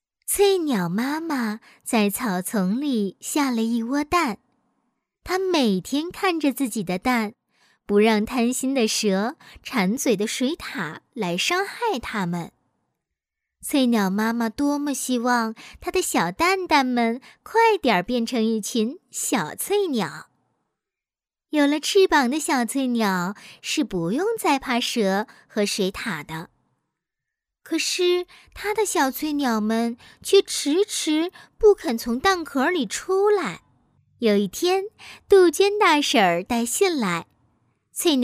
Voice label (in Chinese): 女 课件 儿童有声读物儿童故事